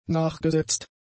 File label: "nachgesetzt", German; adjectives